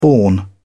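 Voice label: "borne", British English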